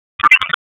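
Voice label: EMS Dispatch, p25; wake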